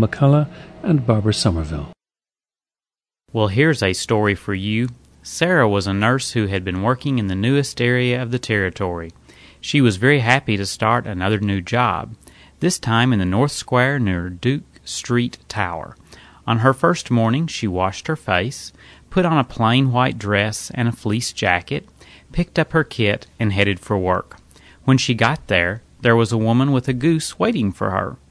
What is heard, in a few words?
kentucky, dialects